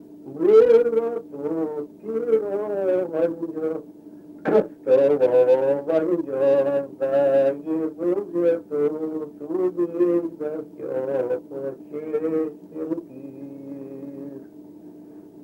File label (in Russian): Речевой портрет, мезень